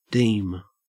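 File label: "deem", Australian English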